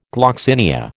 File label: "gloxinia", American